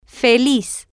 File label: "feliz", Spanish